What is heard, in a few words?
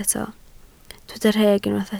"rheg", Welsh